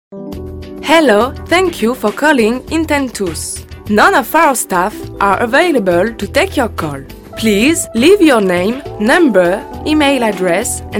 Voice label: en